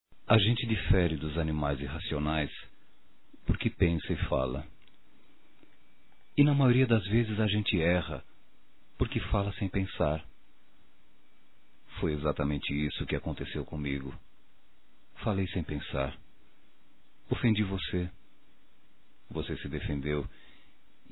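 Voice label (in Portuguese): voz Desculpas Romântica, masc; Telemensagens; Só Voz; Telemensagens 2017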